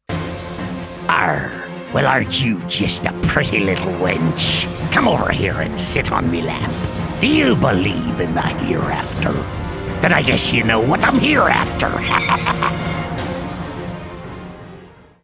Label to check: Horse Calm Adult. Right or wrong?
Wrong